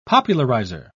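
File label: "popularizer", British English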